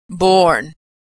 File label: verb "born", pronunciation